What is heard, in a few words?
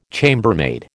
"chambermaid", American